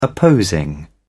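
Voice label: "opposing", British English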